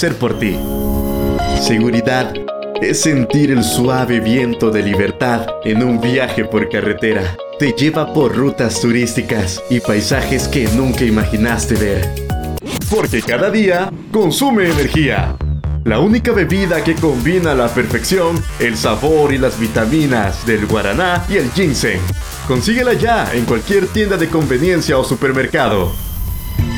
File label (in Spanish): voz marketing